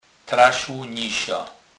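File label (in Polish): "trasec", kurpie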